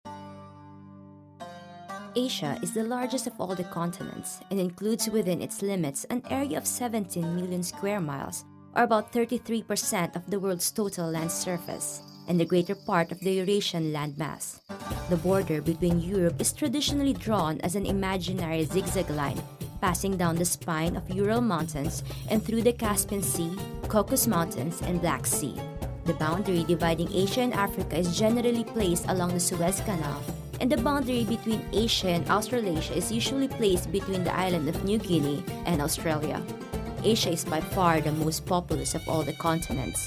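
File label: Tagalog, accented English; GoLocalise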